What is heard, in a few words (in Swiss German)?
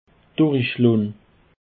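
"durichlon", Alsatian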